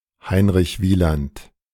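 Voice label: "Heinrich Wieland", German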